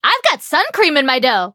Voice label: touch, en; cookie run

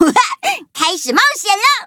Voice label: loading, zh-hant; Cookie Run

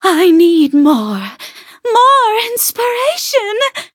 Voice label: levelup, en

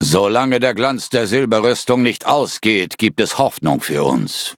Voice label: loading, de

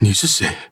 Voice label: touch, zh hant; cookie run

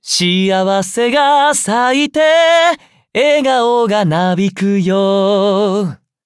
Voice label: levelup ja; cookierun

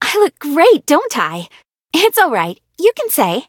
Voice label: levelup, en; Cookie Run

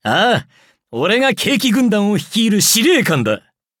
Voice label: upgrade, ja; Cookie Run

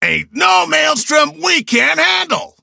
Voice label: levelup en; cookie run

